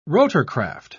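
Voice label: "rotorcraft", British English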